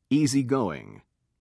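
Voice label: "easygoing", British English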